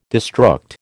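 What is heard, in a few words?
"destruct", American English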